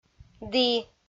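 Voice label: "ді", Komi, female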